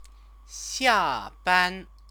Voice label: "xià bān", Chinese; 下 班